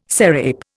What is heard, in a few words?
"serape", Indian